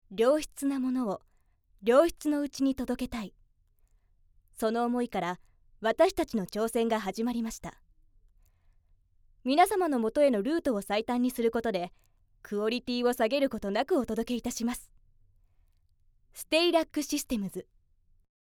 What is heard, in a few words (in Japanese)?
企業用